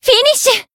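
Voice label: Voice finish, Japanese; hisumena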